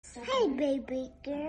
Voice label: "Hi Baby Girl", Girl Voice Notification; ringtone

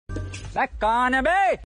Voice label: Kon Hai Be Sound Funny Notification; ringtone

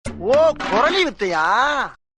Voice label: "Oh Korali Vithaya", Notification; Vadivelu

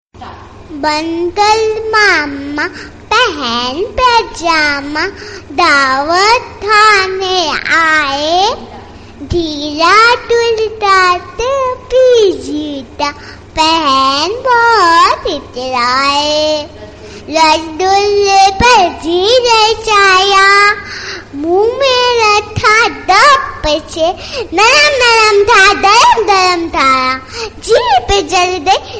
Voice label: Baby Voice Hindi Poetry; ringtone

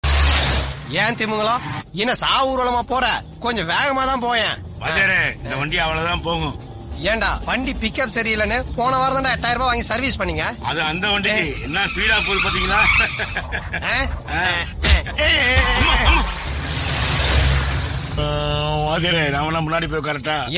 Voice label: Dialogue Tamil South Indian; Kalakalappu

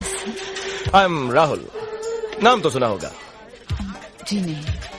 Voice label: Hindi Movie Dialogue Notification; Rahul Naam toh suna hoga; Shahrukh khan

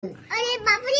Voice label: Are Baap Re Baby Voice Notification

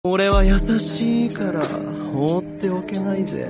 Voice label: Voice Sound Notification; Demon Slayer

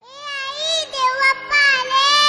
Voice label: Ae Aai Dev Bappa Aale Baby Voice Notification; ringtone

